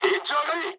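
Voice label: player killed enemy mood high, speech japanese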